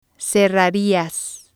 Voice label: "cerrarias", spanish

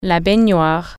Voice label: "bathtub", French

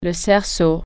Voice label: hula hoop, french

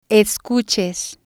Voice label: "escuches", spanish

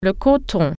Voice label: cotton, french

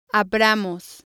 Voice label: "abramos", spanish

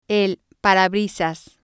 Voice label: "windshield" Spanish

